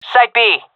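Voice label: loc b, female; vo; Counter Strike Model Pack; CS:GO; seal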